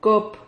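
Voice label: "cóp", Spanish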